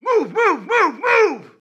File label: tactical voices move move move move; soundscrate